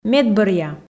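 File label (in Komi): "медбӧръя", Komi, female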